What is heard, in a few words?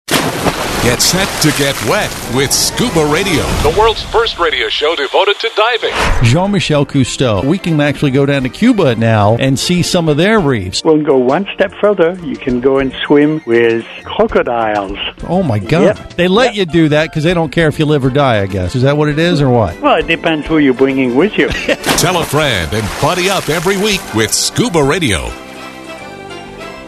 Promo